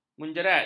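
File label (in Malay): "menjerat", Malay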